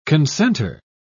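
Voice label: "consenter", British English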